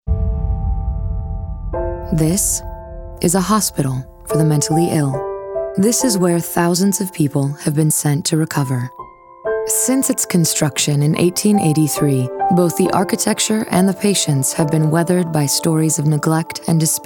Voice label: women narration